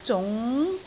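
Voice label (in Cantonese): "zung2", Cantonese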